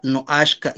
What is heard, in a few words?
"noaxka", Náhuatl; mío, mía; mine